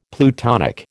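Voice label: "plutonic", American English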